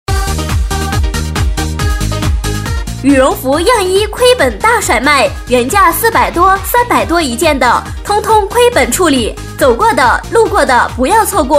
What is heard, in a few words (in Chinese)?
促销 羽绒服样衣亏本大甩卖